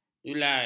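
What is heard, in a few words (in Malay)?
"julai", Malay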